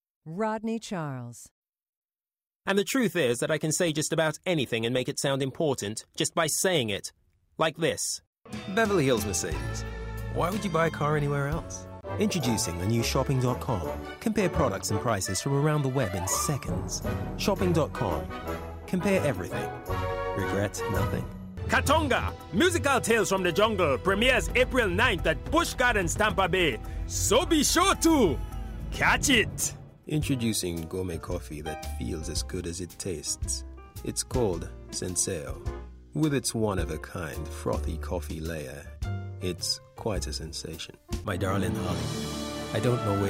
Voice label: voiceover